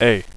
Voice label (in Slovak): "a", anglicke